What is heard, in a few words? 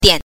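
"dian", Mandarin Chinese pinyin, neutral tone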